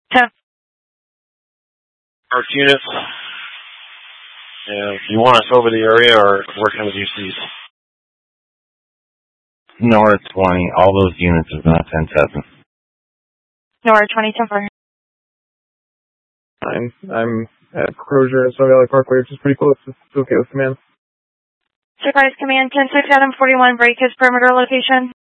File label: Mutual Aid-Intersystem Interop B, scanner